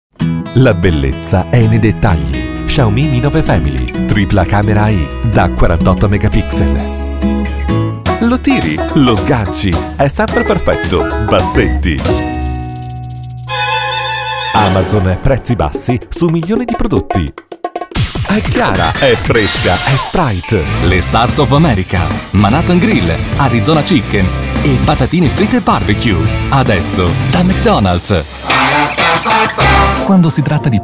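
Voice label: it commercial, Italian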